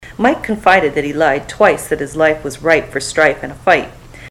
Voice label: mike lied twice, ontario

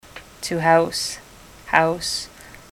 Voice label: to house house, ontario

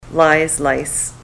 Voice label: lies lice, ontario

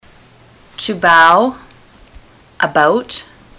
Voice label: to bow about, ontario

